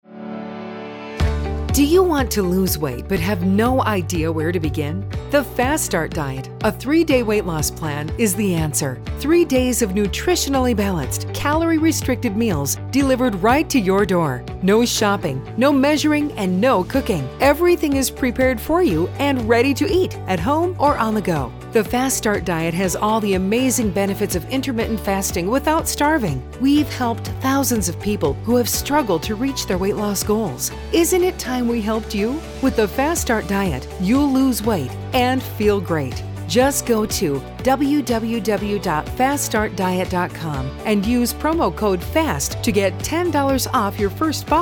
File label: Commercial